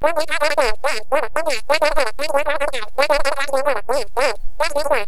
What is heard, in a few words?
Phone voice